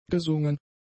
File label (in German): "gesungen", german; adjectives